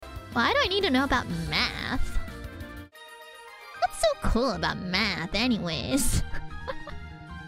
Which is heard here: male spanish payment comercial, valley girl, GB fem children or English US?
valley girl